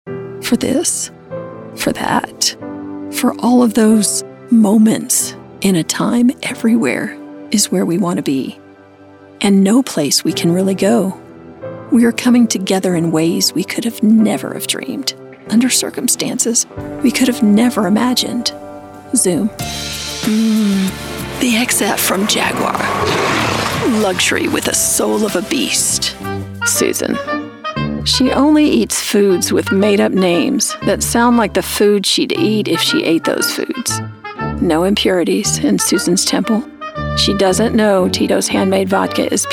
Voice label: Commercial